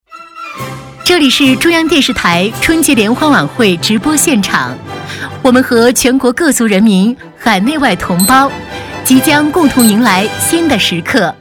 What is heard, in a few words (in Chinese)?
女 大气晚会; 林内